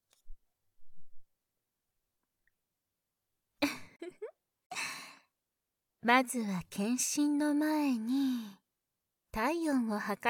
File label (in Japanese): （バイノーラルマイク 効果音なし）; まずはパイズリ→膣内で体温検査